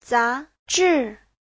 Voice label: "za2 zhi4", Chinese